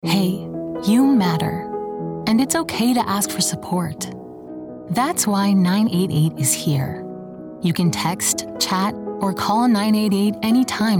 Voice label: Youth Campaign Radio Ad; 30-sec; 44.1khz; 988